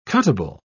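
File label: "cuttable", British English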